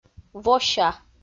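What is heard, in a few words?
"вося", Komi, female; audio dict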